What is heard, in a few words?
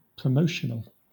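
"promotional" English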